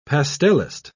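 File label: "pastellist", British English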